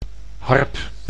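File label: "harp", Dutch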